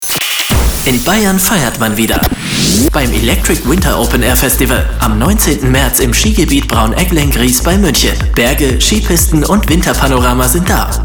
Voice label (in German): Werbung; Radiospot - Sunshine Live